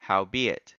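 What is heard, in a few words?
"howbeit", US English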